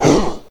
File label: orc hit; wesnoth